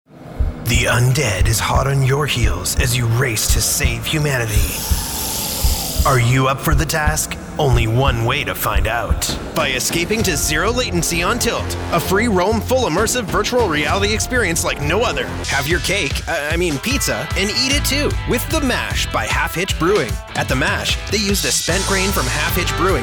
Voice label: Spot